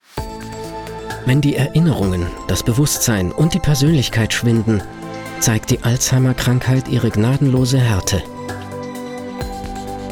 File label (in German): Imagefilm Forschungsunternehmen; Meine Stimme – Sprachrohr für Deine Vision